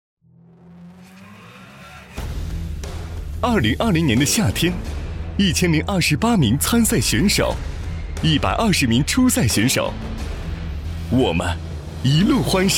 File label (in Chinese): 男 专题 年轻 栏目解说; 直播未来